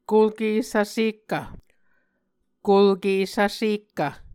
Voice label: "kulke svsekkv", Muscogee